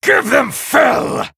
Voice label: Male Night Elf